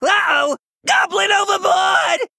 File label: Male Goblin Victory; Sneed; Scabbs